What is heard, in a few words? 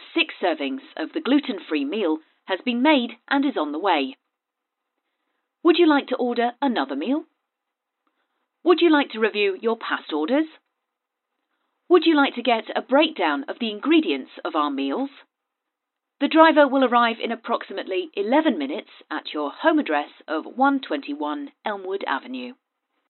On hold